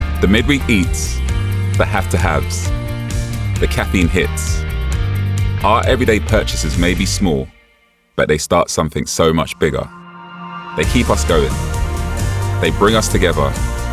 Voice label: male english tv promo; mastercard; Devine Voices